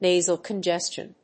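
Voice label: "nasal congestion", English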